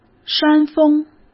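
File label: "山峰", Mandarin Chinese; hsk; chinese flashcards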